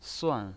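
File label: "suan", Mandarin Chinese pinyin, fourth tone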